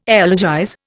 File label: "elegize", Indian